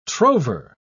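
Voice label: "trover", British English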